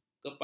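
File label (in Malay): "kepalang", Malay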